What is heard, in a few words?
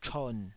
"chon3", Taiwanese